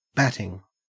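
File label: "batting", Australian English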